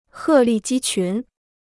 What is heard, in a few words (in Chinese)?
"鹤立鸡群", Chinese